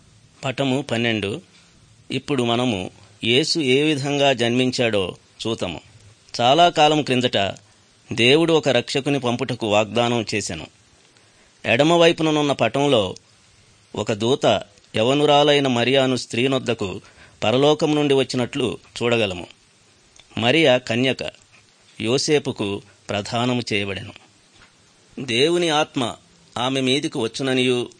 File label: good news telugu